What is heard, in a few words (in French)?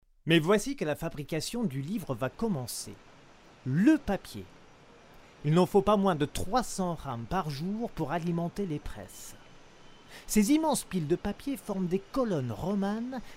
Docu français imprimerie